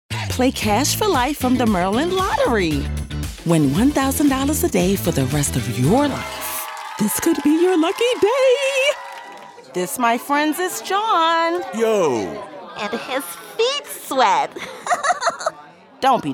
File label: Commercial